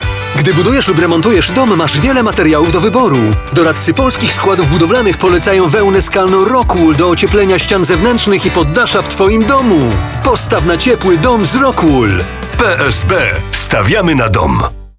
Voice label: radio; rockwool